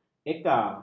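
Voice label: "eka", Malay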